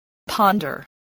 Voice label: "ponder", English